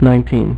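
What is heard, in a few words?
"nineteen", male; MacCribbage; InterStellar MacCribbage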